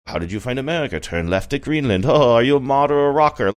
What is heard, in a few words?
saying how did you find america turned left at greenland scouse